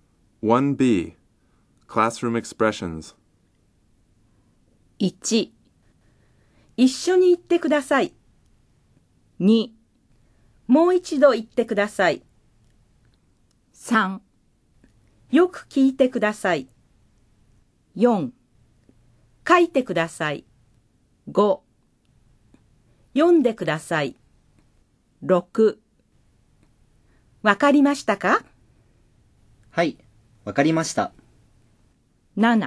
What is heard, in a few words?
Dialog